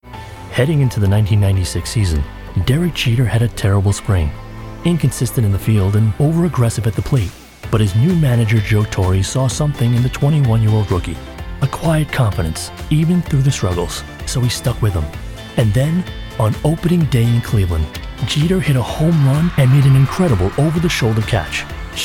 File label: Narration